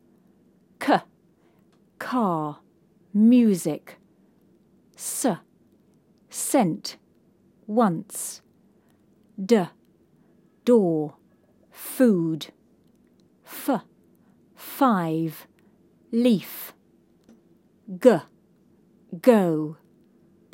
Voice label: Consonant Letter Sounds, Phonics; Phonics in Action